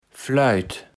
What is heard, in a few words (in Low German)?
"Fleut", Platt